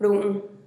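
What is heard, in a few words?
"ru'un", Teotitlán del Valle Zapotec; cry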